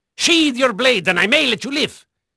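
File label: Dutch male, voice; Pirates Of The Caribbean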